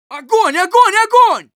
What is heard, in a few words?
auto on fire ru; Scout